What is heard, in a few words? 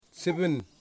people who speak Wamut, Wamut